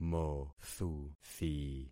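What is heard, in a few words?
"mothuthi", concatenated syllables; Affixing experiment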